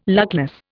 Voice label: "luckless", Indian